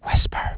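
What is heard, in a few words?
whisper, human voice; EGG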